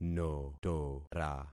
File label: "notora", concat syllables; Affixing experiment